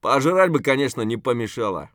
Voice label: scav mutter, voice; Escape from Tarkov; scav5